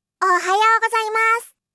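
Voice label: "ohayou gozaimasu", Japanese; aisatsu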